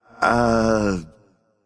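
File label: "seriously", male; smite; voicepack; olorun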